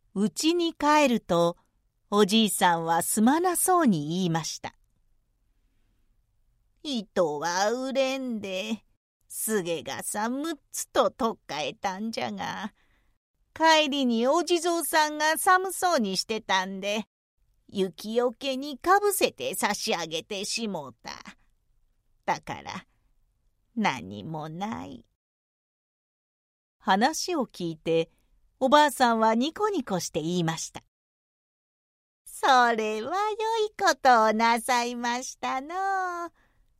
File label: female